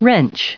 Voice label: "wrench", en-us, recite